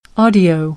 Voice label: "audio", American; AmericanBritish